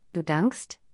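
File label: pronunciation du dankst, German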